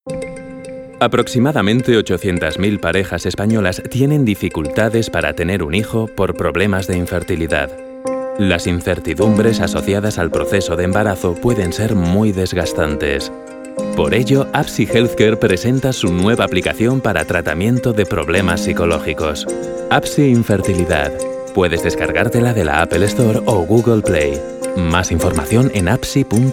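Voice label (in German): Spanish, Fremdtake Sanft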